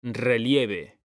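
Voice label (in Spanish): "relieve", male; mekanta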